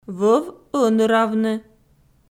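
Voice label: "вӧв ӧныравны", Komi, female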